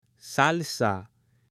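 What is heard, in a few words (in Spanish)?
"salsa" Spanish, female; ALIMENTOS; AppLenguaje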